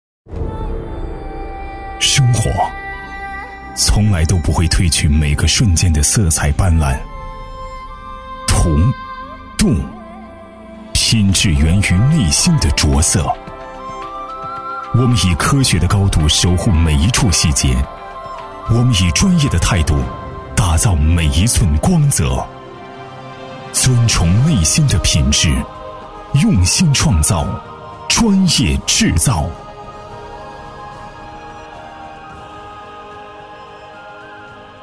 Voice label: 男 专题 大气品质

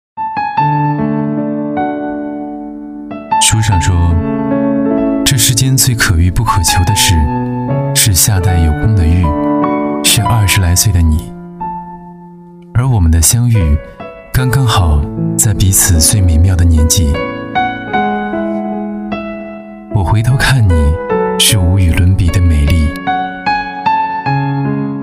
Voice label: 男 独白 文艺清新男

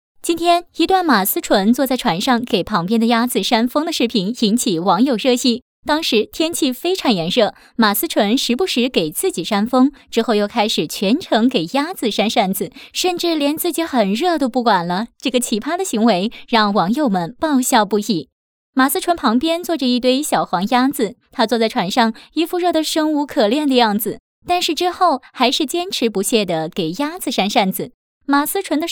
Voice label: 女 新闻 娱乐播报; 马思纯

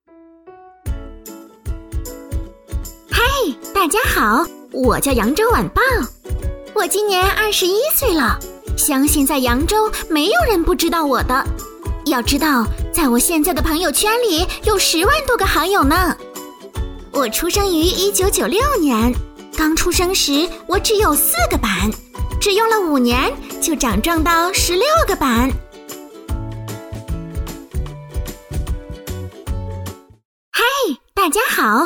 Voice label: 女童; 国语女声配音; 我叫扬州晚报

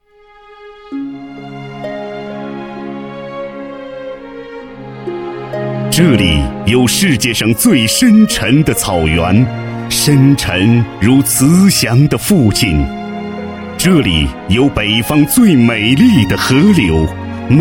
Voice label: 男 专题 大气深情, 国语男声配音, 浑厚; 草原夏令营

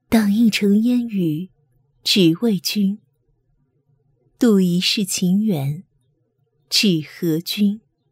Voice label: 女 游戏; 郑旦

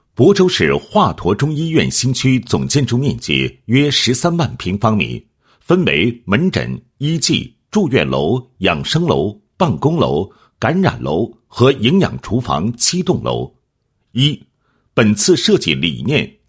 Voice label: 男 项目设计 中医院医院设计; 华佗

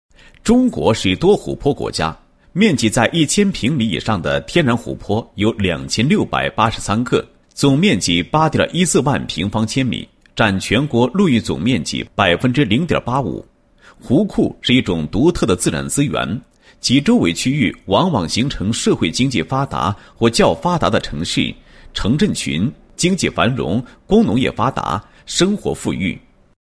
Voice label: 男 解说词; 专利技术实地应用解说

